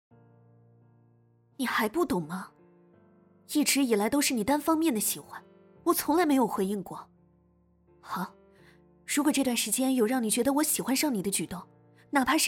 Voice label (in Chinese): 现代女角色音